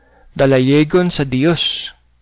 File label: goodbye, cebuano